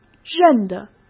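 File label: "认得", Mandarin Chinese; chinese-flashcards; hsk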